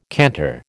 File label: "canter", American